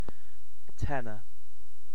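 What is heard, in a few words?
"tenor", British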